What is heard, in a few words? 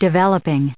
"developing", en us